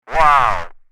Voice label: Walkie talkie conversation "wow"

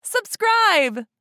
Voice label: "Subscribe" social media female; shorts sound effects

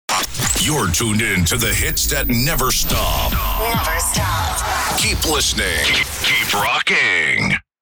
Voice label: Male radio voice dj sweeper hits that never stop; broadcasting

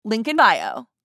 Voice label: Link in bio social media female

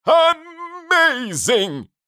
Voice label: Amazing cartoon voice funny comedy

